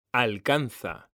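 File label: "alcanza", Spanish, male; mekanta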